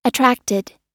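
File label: "attracted" US English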